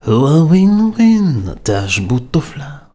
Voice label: POET, POET VOICE, Arabian Traditions

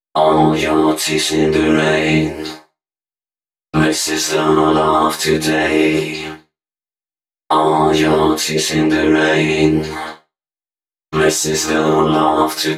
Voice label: male d# 130; Mutekki Media; Dance Ultra Voice VOL-1

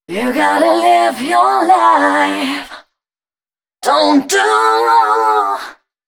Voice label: female 130, Dance Ultra Voice, short hooks

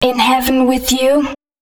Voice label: female, spoken hooks

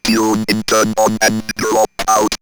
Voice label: Robot Tune In Turn On, robot vox; X-Static Goldmine

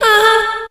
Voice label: Female, Voice

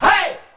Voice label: "hey", shouts; Best Service; Hyper Dance

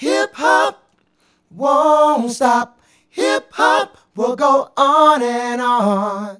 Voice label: Male Vocal; Vocals; Sonic Foundry (Sony Creative Software); Mac Money

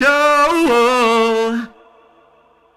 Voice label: Male FX Vocals; Houseworx

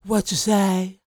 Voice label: DIALOG, R&B Dance, FEM. SPOKEN

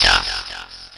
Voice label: VOICE, VOICE-FX

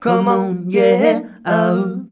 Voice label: COME ON YE, MALES, Hyper Dance; Best Service

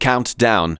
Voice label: COUNTDOWN, spoken vocals; Techno Trance Essentials

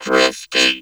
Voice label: "drifting", vocal; Q Up Arts; Dream Experience; VOCOVOCAL

